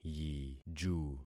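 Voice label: "yiju", concatenated syllables; Affixing experiment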